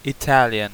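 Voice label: "Italian", English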